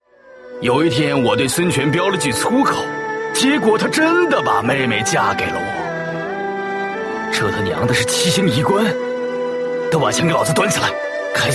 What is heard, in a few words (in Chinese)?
nan zhonglaonian